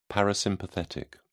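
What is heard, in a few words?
"parasympathetic", British English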